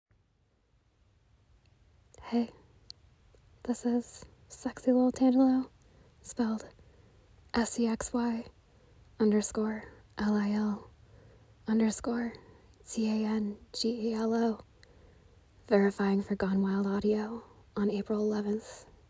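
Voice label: Female Verification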